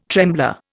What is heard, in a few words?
"trembler", Indian